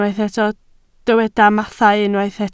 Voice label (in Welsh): "mathau", Welsh